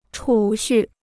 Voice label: "储蓄", Chinese

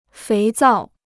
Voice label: "肥皂", Chinese

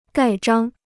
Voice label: "盖章", Chinese

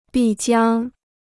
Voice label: "必将", Chinese

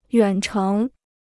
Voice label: "远程", Chinese

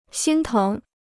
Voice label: "心疼", Chinese

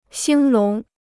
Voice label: "兴隆", Chinese

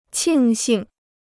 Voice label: "庆幸", Chinese

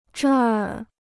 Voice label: "这儿", Chinese

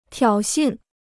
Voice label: "挑衅", Chinese

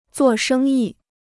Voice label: "做生意", Chinese

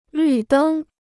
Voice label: "绿灯", Chinese

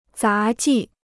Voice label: "杂技", Chinese

